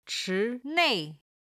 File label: "chi2 nei4", Chinese